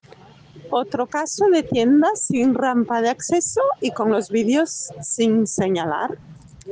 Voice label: Voice message